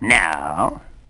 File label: now cartoon